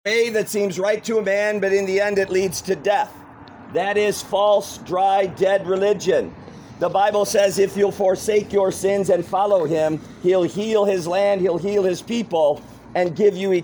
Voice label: preaching outside lutheran church